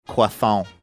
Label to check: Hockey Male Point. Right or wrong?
Wrong